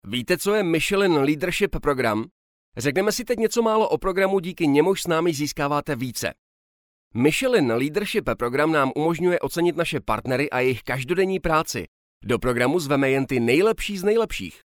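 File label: Czech, male, e-learning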